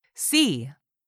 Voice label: "sea", English word; 3000 words

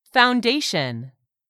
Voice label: "foundation", English; 3000 words

